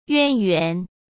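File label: "渊源", Mandarin Chinese; chinese flashcards; hsk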